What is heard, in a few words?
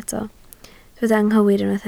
"anghywir", Welsh